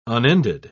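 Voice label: "unended", British English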